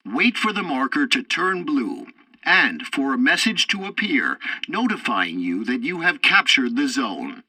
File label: tutorial tank arcade capture zone wait until capture ends, english; War Thunder